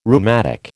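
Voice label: "rheumatic", American English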